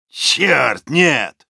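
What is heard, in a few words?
Soldier negative vocalization ru, Russian; «Чёрт, нет.»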